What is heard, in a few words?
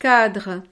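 "cadre", French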